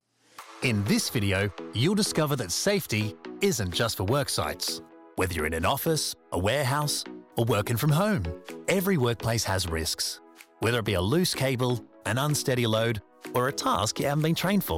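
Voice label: NARRATION, Authentic Australian Voiceover; WORKSAFE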